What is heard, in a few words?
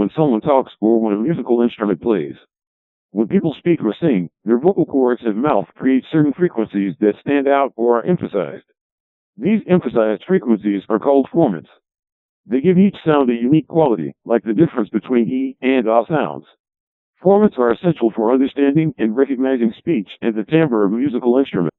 deep voice codec2